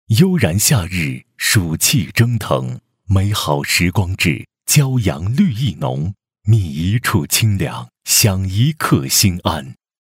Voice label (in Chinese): 男 提示您, 男声广播提示